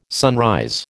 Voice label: "sunrise", American

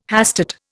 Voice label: "hastate", Indian

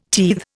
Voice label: "teethe", Indian

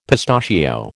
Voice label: "pistachio", American

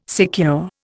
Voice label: "secure", Indian

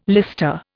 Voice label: "lister", Indian

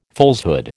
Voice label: "falsehood", American

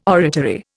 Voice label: "oratory", Indian

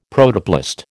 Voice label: "Protoplast", American

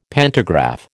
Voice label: "pantograph", American

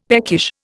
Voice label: "peckish", Indian; Dictionary

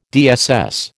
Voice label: "DSS", American

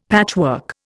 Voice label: "patchwork", Indian; Dictionary

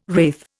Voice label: "wraith", Indian

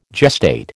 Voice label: "gestate", American

